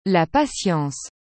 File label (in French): "la patience" SYNTH, FR